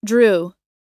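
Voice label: "drew", American English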